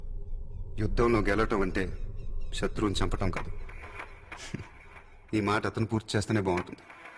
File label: Telugu Dialoug; Jalsa